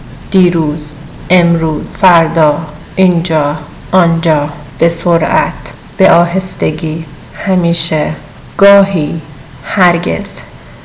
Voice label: persian time

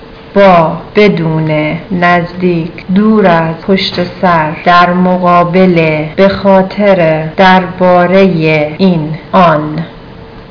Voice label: persian words

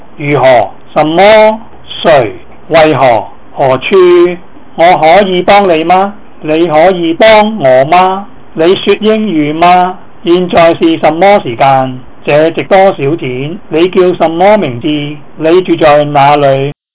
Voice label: cantonese phrases

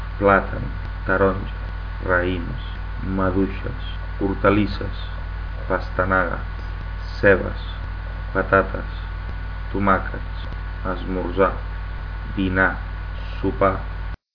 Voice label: catalan; food

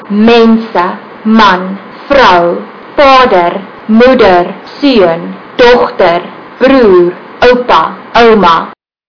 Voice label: afrikaans; people

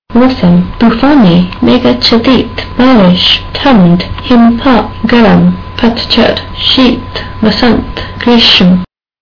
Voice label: hindi weather

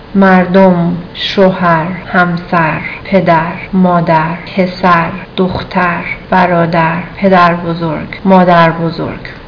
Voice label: farsi; people

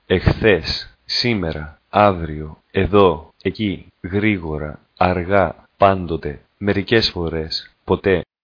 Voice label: greek

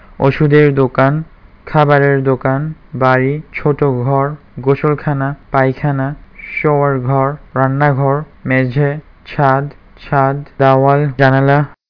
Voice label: bengali

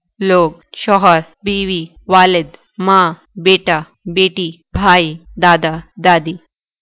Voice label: Urdu people

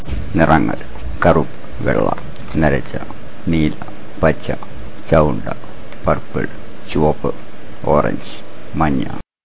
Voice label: malayalam colors

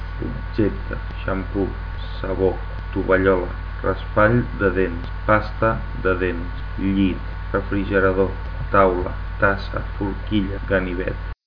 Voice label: catalan

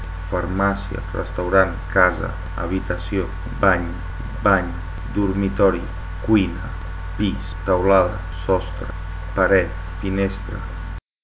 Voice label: catalan

